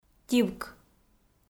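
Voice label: "тивк", Komi, female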